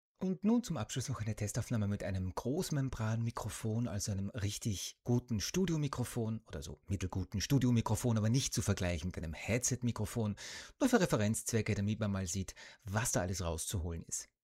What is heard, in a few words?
Studio Mic; logitech g522; logitech g522 review